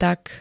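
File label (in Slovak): "tak", Slovak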